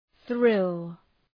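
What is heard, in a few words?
"thrill", English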